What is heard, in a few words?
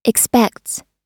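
"expects", US English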